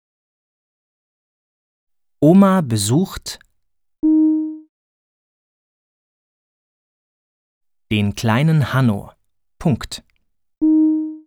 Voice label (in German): Da lachen ja die Huehner diktiert; 200 Diktate; zweite Klasse